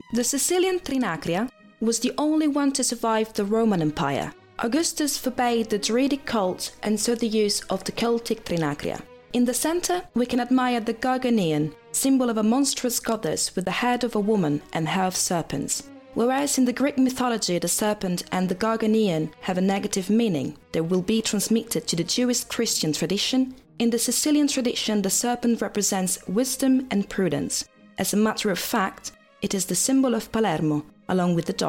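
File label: IT AccEN, Italian accented English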